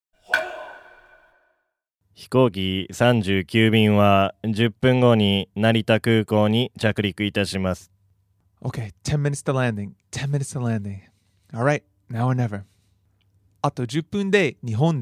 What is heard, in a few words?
dialog; JapanesePod101; Beginner Season 1